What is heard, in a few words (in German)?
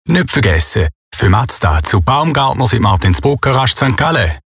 Spot Abbinder; Baumgartners; Mazda; radio fm1